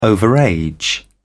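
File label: "overage", British English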